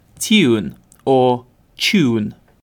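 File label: "tune" English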